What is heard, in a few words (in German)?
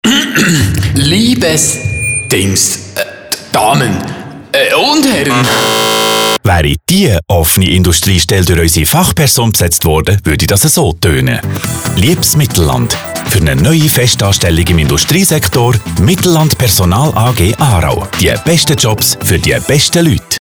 radiospot